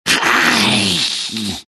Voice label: anger; slardar